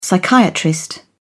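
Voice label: "psychiatrist", British English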